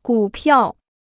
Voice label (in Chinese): "股票", Chinese